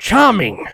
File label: positive vocalization